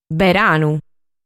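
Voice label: "Beranu", Sardinian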